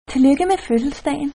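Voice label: happy birthday danish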